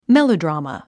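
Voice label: "melodrama", English word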